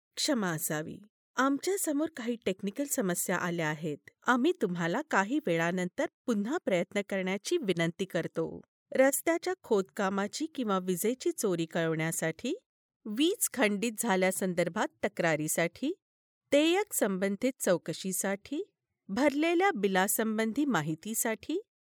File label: female marathi voice over narrative